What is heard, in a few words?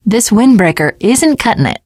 teengirl, voice; Left 4 Dead 2